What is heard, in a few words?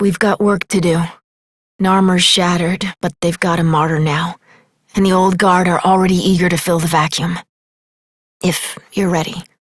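Voice, English; New War; Epilogue; Operator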